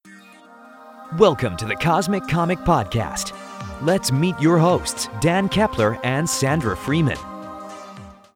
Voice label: Podcast US